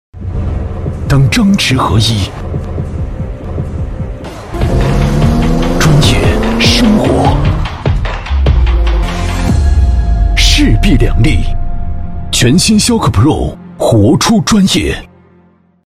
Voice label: 男 广告 汽车力度高端; 逍客

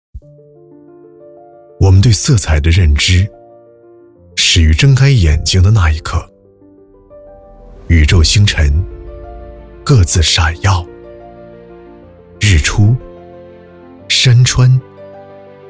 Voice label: 男,品牌广告; 广汽集团

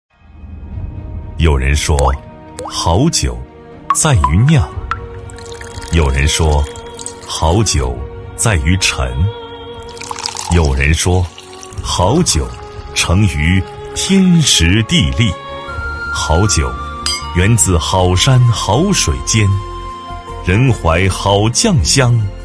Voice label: 男 大气广告; 金粮仙酒

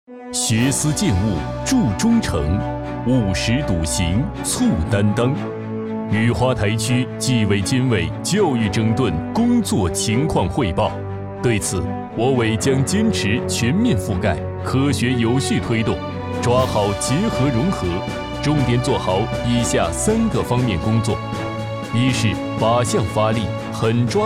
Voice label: 男 专题 正式大气; 雨花台

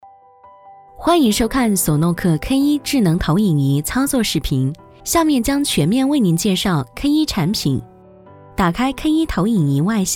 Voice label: 女 产品介绍; 索诺克K1智能投影仪操作视频

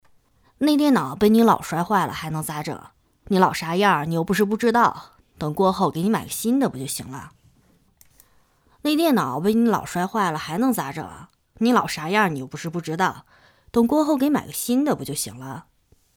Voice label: 母亲角色

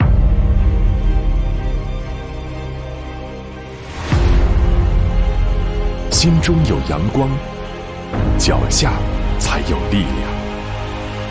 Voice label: 男 企业宣传片; 中国人寿

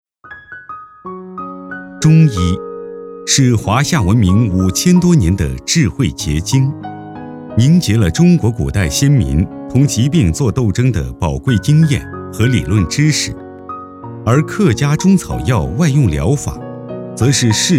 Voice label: 男 纪录片 讲述类纪录片

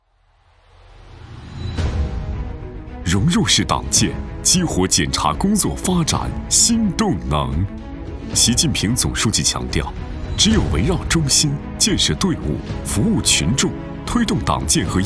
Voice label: 男 党建专题; 融入式党建

